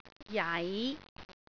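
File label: "jai5", Cantonese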